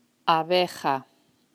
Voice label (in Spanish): "Abeja", Spanish